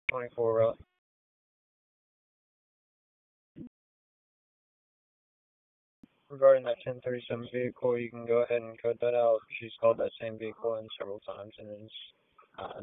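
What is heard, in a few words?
Police Southwest District Dispatch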